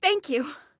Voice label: Respond Thanks, Female; Female 02

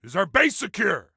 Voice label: Team Base Secure, Soldier Male; Soldier Male 03

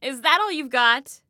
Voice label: Taunt Obnoxious, Female

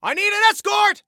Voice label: Need Escort, Soldier Male; Soldier Male 01

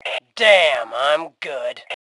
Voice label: Taunt Brag, Female; T2 Female 04

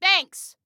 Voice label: Team Thanks, Female

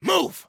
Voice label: Team Move, Soldier Male

